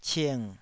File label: "cing3", Taiwanese